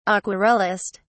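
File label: "aquarellist", British English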